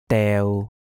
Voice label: "deu6", cantonese